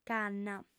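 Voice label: "canna", female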